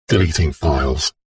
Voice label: computer console voice robotic male says deleting files; science fiction